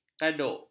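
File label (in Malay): "kaduk", Malay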